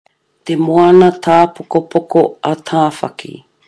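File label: "te moana tapokopoko a tawhaki", Māori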